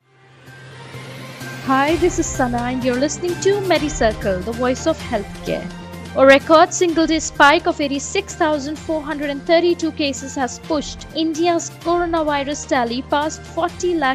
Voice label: english news